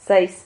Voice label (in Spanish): "zais", Silabas